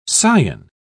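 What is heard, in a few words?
"cion", British English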